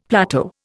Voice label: "plateau", Indian; Dictionary